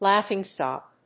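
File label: "laughingstock", English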